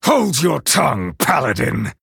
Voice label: Male Night Elf; Hero; Kurtrus; Cariel Mission2 Emote Response

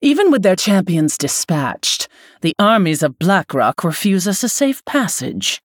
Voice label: Female Human; Lady Prestor

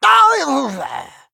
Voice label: Male Human Death

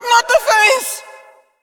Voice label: Female Faceless Attack

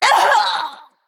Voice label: Female Naga Death; Queen Azshara

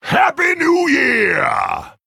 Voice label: Male Tauren Emote HAPPY NEW YEAR LUNAR

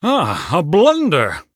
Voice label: Male Human Oops

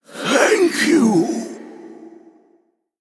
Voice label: Male Human Ghost Death

